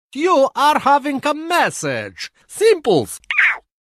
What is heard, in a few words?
Funny Msg Accent